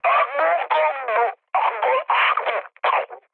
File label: damaged pilot, mood high, speech tur; War Thunder